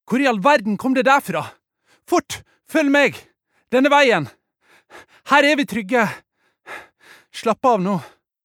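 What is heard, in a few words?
dub, Norwegian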